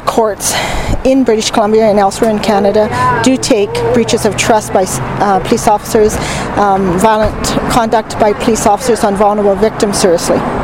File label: serious message